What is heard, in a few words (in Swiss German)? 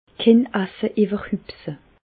iwwerhupse, Bas Rhin; medecine